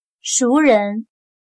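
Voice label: "熟人", Chinese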